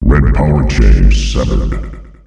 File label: Red powerchain severed, announcer male; Unreal Tournament; ut2004